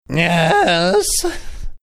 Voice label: yes weird manner

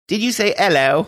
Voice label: saying did you say ello british

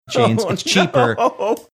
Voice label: laughing saying oh no

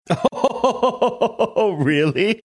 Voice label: laughing saying really

